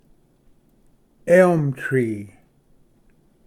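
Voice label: "elm tree", English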